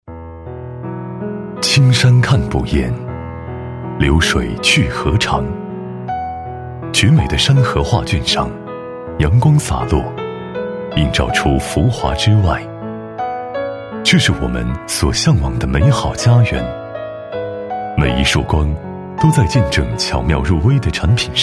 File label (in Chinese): 男 企业宣传 亲切自然; 男98-磁性品质 质感磁性; 青山